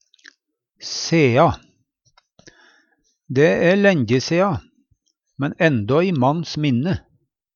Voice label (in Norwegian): sea, Numedalsmål; Dæ æ lænje sea, men endå i manns minne.; sidan; Adverb